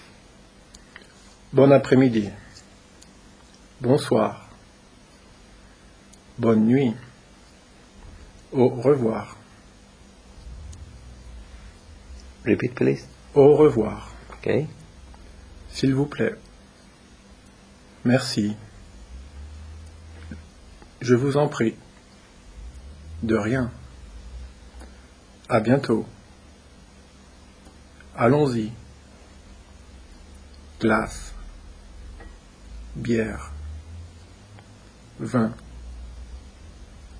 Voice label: French